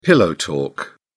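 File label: "pillow talk", British English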